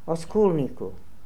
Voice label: "Oskolnikoo", pronounciations; Fourth Way; Beelzebub

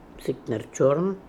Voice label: "Sikt ner chorn", pronounciations; Fourth Way; Beelzebub

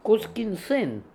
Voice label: "Canineson", Beelzebub pronounciations; Fourth Way

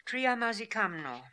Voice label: "Triamazikamno", pronounciations; Fourth Way; Beelzebub

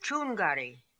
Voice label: "choongary", pronounciations; Fourth Way; Beelzebub

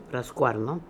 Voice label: "Rascooarno", pronounciations; Fourth Way; Beelzebub

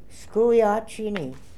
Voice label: "Skoohiatchiny", pronounciations; Fourth Way; Beelzebub

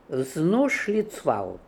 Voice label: "Vznooshlitzval", pronounciations; Fourth Way; Beelzebub

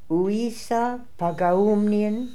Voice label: "Ooissapagaoomnian", pronounciations; Fourth Way; Beelzebub

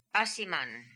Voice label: "Asiman", Beelzebub pronounciations; Fourth Way

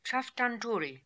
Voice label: "Tchaftantouri", pronounciations; Fourth Way; Beelzebub

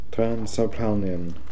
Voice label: "Transapalnian", pronounciations; Fourth Way; Beelzebub

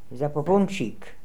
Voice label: "Zapoopoonchik", pronounciations; Fourth Way; Beelzebub

